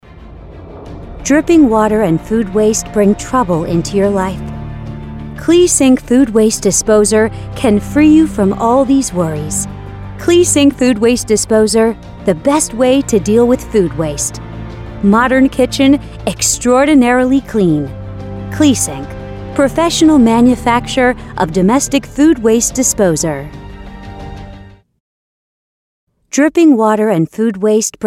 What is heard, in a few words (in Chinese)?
外籍女, 产品广告